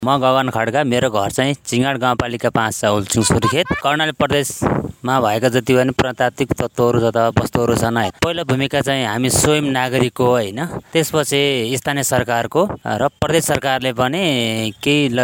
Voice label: voxpop